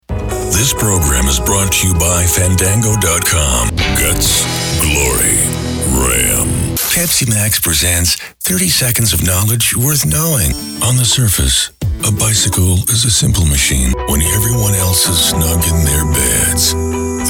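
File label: commercial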